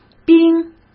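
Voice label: "冰", Mandarin Chinese; hsk; chinese flashcards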